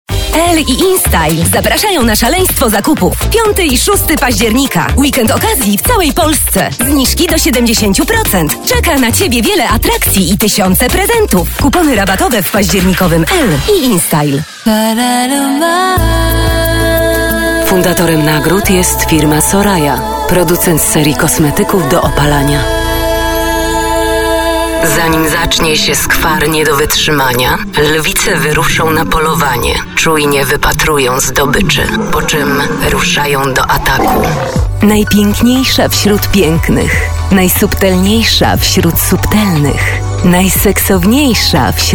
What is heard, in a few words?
COMMERCIALS